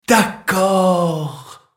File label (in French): "d'accord" French; rammus